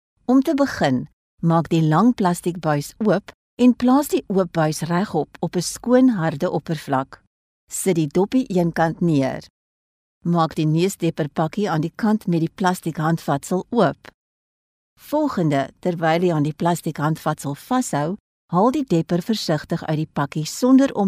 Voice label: afrikaans e-learning